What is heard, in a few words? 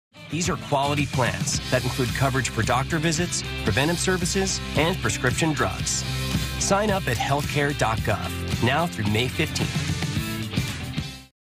bright informative